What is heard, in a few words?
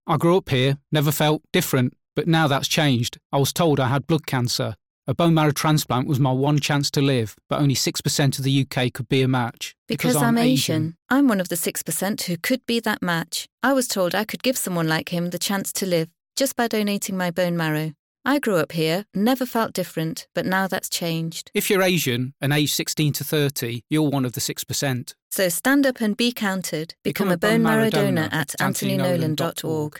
radio advert; reach the six